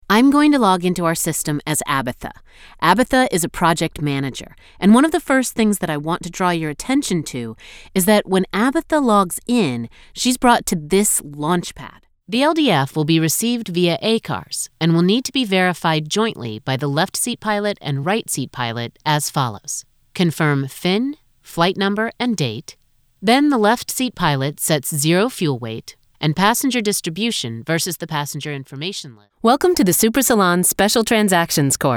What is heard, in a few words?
eLearning